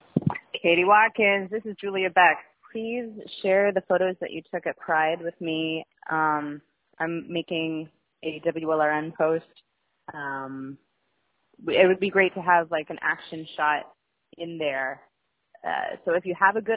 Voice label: voicemail